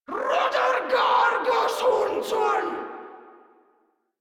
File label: Attack Grineer Female; Warframe